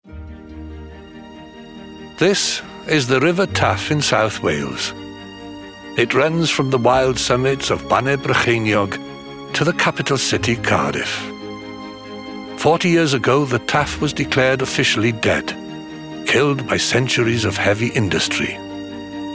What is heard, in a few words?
doco